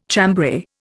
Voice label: "chambray", Indian